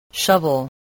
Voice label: "shovel", English

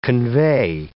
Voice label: "convey", English

